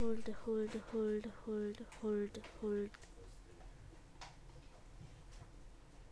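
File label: "hold", spoken word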